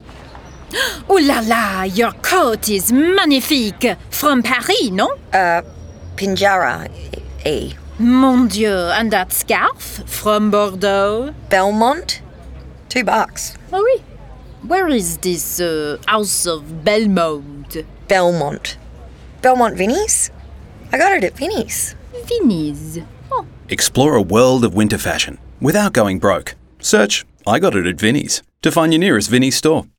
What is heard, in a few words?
FRENCH FEMALE RADIO; 30s; Vinnies; wildlings; WA suburbs; fashion capitals